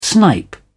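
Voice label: "snipe", British English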